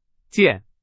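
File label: Chinese, vocabulary; hsk3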